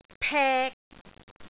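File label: "pek3", Cantonese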